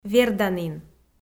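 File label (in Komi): "верданін", Komi, female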